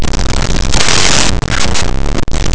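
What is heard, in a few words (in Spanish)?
"prieto", Spanish; laboratori fonetica; vibrants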